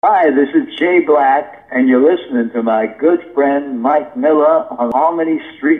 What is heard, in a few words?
promo